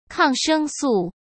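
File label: "抗生素" Mandarin Chinese; hsk; chinese-flashcards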